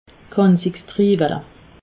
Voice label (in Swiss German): "kassistriiwele", alsace